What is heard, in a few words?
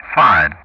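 "fired", English; Ka-50; DCS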